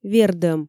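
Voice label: "вердӧм", Komi, female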